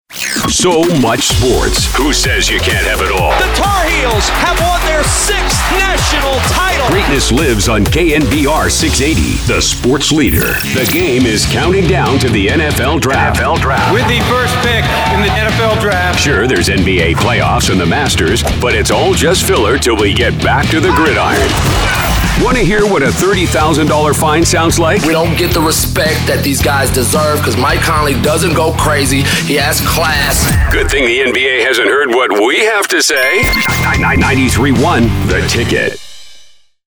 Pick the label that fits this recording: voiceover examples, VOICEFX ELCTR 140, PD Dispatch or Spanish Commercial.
voiceover examples